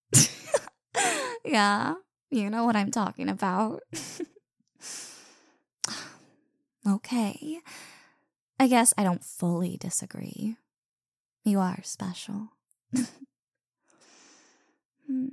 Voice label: cute girlfriend changing room